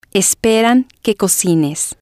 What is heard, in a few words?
esperan que cocines, Spanish